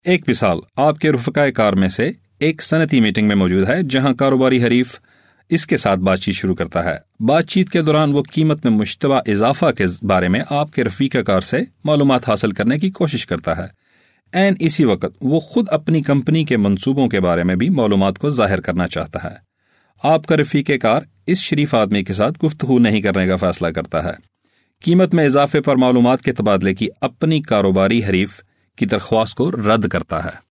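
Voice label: Urdu, male, ad; voice-over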